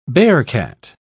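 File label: "bearcat", English, British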